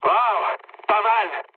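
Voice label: French trick mood high; War Thunder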